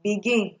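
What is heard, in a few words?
"begin", Nigerian Pidgin, female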